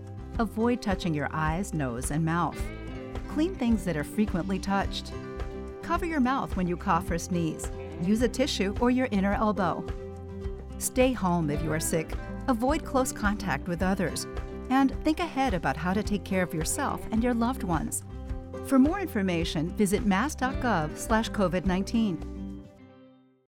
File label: Stop Spread of Germs Radio Spot